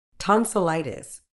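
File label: "tonsillitis", British English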